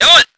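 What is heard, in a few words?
voice german vehicle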